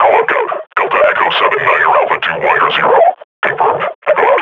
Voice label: idle, grunt; Half Life Model Pack